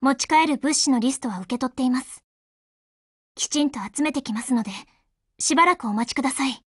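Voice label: OPERATION BEGIN Japanese, Voice; GF Alarm; Fedorov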